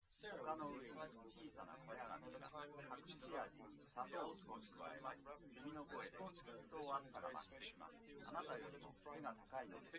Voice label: male, liverec, src; SiSEC08; SiSEC underdetermined